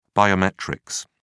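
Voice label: "biometrics", British English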